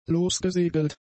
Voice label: "losgesegelt", German; adjectives

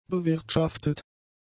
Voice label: "bewirtschaftet", German; adjectives

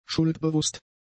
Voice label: "schuldbewusst", German; adjectives

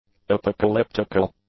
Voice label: "apocalyptical", English; adjectives

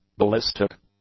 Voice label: "ballistic", English; adjectives

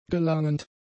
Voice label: "gelangend", German; adjectives

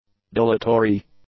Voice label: "dilatory", english; adjectives

